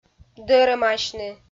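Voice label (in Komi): "дӧрӧмасьны", Komi, female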